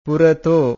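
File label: "purato", Pali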